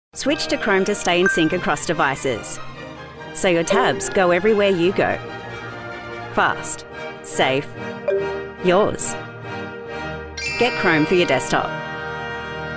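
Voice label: commercial, Australian, tech, cool, millennial, confident, friendly; GOOGLE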